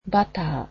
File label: "butter", japanese